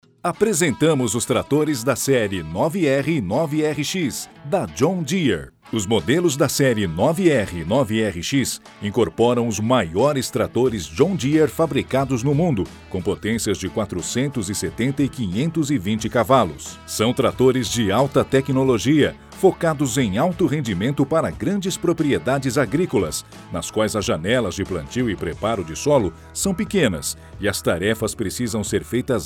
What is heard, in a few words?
portuguese brazilian commercial